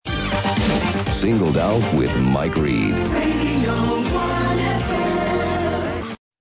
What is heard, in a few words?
jingle read